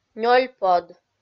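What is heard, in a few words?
"нёльпод", Komi, female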